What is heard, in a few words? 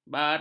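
"barang", Malay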